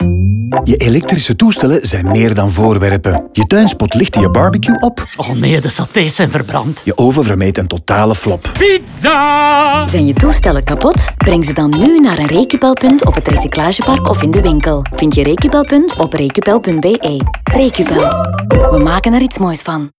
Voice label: radio nl music intro; recupel